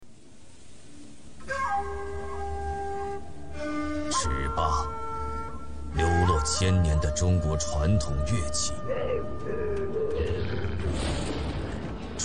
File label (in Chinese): 男 硬朗旁白; 英雄联盟